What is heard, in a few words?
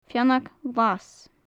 "feannag ghlas", gaelic; Faclan Nàdair